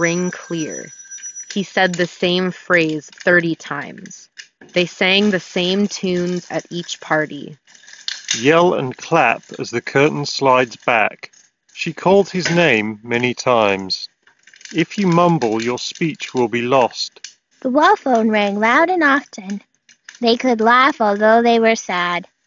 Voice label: Sennheiser Momentum 4 Wireless Office microphone sample